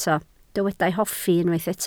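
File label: "hoffi" Welsh, spoken word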